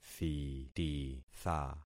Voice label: "thititha", concat syllables; Affixing experiment

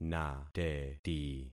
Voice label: "nateti", concat syllables; Affixing experiment

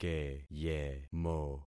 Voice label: "keyemo", concat syllables; Affixing experiment

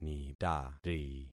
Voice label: "nitari", concat syllables; Affixing experiment

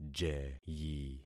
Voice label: "jeyi", concat syllables; Affixing experiment

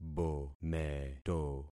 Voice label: "bometo", concat syllables; Affixing experiment

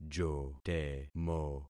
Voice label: "jotemo", concat syllables; Affixing experiment

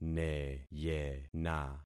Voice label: "neyena", concat syllables; Affixing experiment

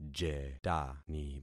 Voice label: "jetani", concat syllables; Affixing experiment

